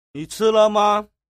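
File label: "nichilema", Mandarin Chinese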